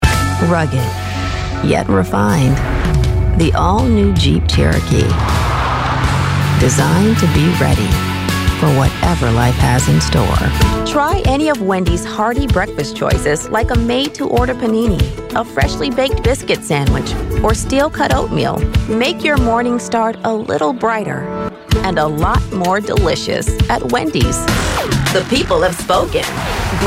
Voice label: american accent, english female voices